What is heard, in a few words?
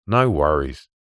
human male australian middle aged says no worries